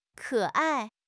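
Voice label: "ke3 ai4", Chinese; HSK3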